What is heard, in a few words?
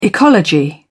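"ecology", British English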